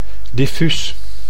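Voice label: "diffuus", Dutch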